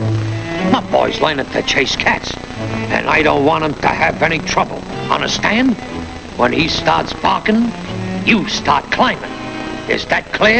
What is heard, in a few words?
pep talk